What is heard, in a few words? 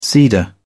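"cedar", British English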